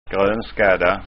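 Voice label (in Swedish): "gronskadda", Scanian dialect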